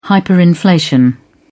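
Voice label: "hyperinflation", British English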